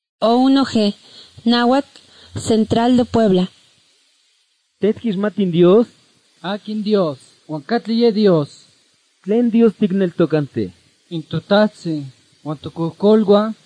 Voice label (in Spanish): spanish mexico